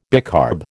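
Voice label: "bicarb", American English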